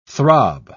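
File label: "throb", English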